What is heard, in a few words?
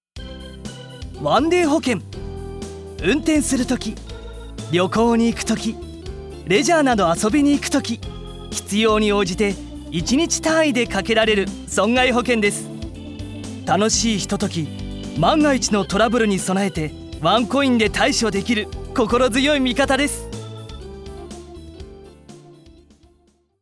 japanese narration